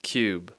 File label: "cube", English; Speech Pro